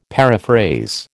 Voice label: "paraphrase", American

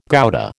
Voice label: "Gouda", American

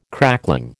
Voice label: "crackling", American

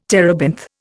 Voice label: "terebinth", Indian

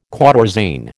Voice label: "quatorzain", American

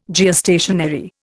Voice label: "Geostationary", Indian

